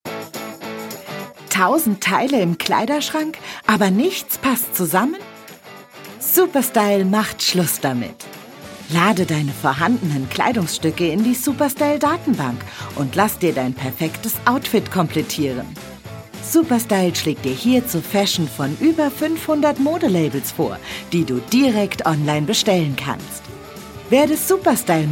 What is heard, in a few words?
German commercial